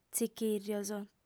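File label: "tzichìrrios", lingua sarda, female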